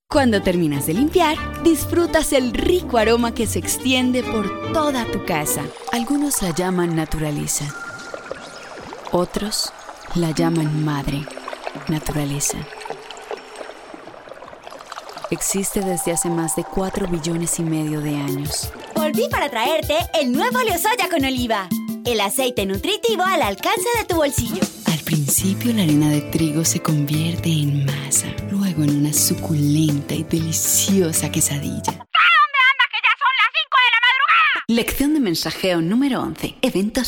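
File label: female, Latin American Spanish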